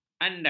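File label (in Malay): "andas", Malay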